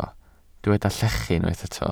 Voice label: "llechu", Welsh